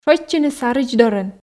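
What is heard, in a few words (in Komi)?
"шойччыны саридз дорын", Komi, female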